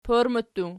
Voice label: "porma", Archi